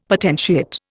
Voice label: "potentiate", Indian; Dictionary